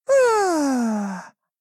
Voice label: doubt